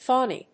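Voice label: "phoney", English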